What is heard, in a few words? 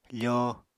"fóo", Apatani